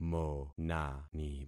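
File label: "monani", concatenated syllables; Affixing experiment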